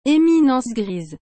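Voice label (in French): "éminence grise", French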